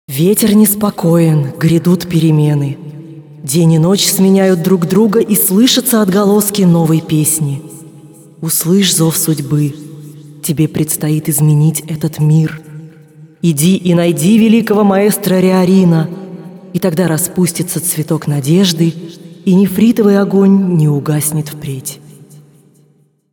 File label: tutorial voice ru; lineage2